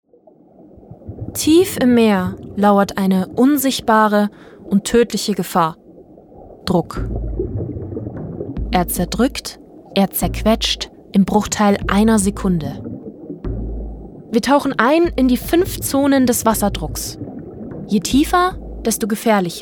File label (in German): Unterwasser Doku